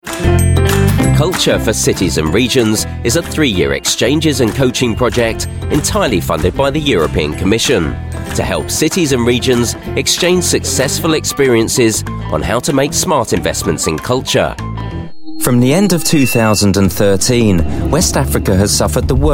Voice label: UK English Corporate